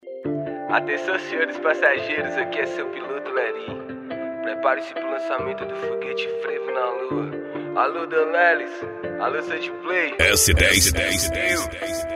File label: rap